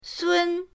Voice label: "sun1", pinyin; pinyindct-open